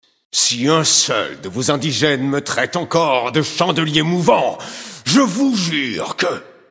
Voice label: Male; Draenei Sancteforge; Blague

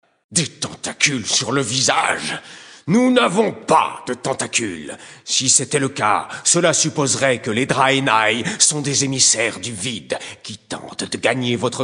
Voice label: Male Blague; Draenei Sancteforge; Tentacules

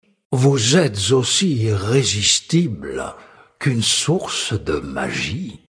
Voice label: Male Flirt, voix francaises; Sacrenuit; Irresistible; warcraft; races alliees

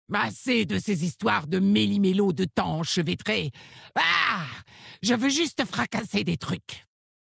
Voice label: Femme Blague Melimelo; Orc Maghar

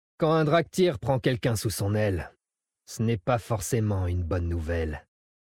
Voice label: Dracthyr Male Blague, VF

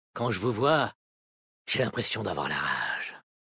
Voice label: Vulperin Homme Flirt Rage, VF

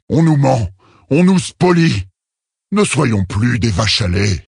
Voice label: Male Blague; Tauren Haut Roc; Vache Lait

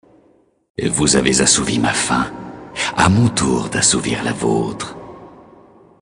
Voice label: Male Flirt; Elfe du Vide; Faim

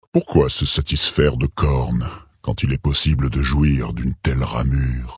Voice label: Male Flirt, voix françaises; Tauren Haut Roc; warcraft; races alliées

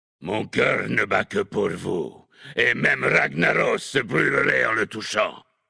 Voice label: Homme Flirt; Ragnaros; Nain sombrefer

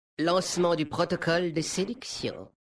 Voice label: Homme Flirt; Mecagnome; Protocole

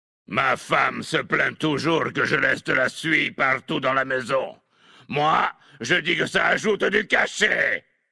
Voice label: Homme Blague; Suie; Nain sombrefer

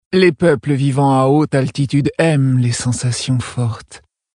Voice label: Female Flirt; Tauren Haut Roc; Sensation Forte

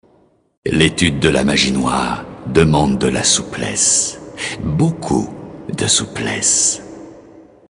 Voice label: Male Flirt; Elfe du Vide; Souplesse

